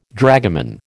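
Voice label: "dragoman", American English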